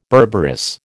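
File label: "berberis", American English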